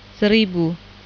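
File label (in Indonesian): "seribu", Indonesian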